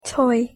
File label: pronunciation en toy